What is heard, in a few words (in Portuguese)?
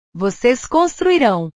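"vocês construirão", Portuguese; portugues/verbos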